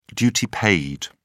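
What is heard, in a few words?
"duty paid", British English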